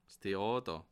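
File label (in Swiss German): "theater", Bas Rhin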